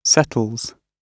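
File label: "settles", British English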